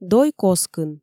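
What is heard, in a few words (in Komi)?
"дой коскын", Komi, female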